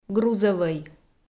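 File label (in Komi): "грузӧвӧй", Komi, female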